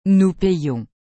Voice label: "nous payons", frans; payer

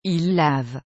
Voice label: "ils lavent", frans; laver

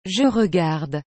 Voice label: "je regarde", frans; regarder

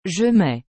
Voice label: "je mets", frans; mettre

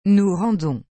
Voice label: "nous rendons", frans; rendre

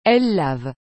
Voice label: "elles lavent", frans; laver

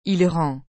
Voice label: "il rend", frans; rendre

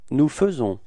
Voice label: faire nous, frans

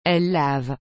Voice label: "elle lave", frans; laver

